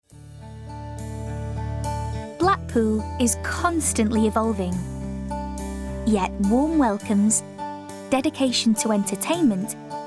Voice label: Corporate